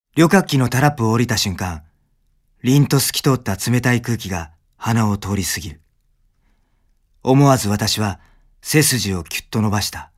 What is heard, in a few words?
narration